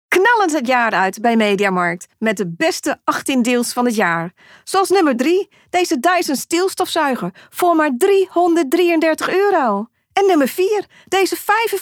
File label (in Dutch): selling; Mediamarkt